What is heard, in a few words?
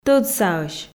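"тӧдсаӧсь" Komi, female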